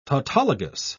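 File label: "tautologous", British English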